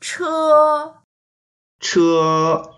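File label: "che1", Mandarin Chinese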